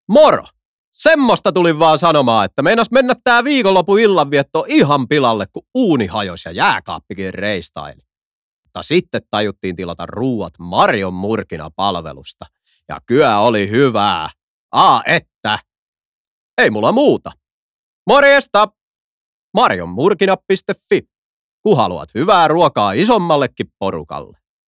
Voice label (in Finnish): radio